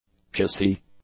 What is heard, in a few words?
"pusy", english; adjectives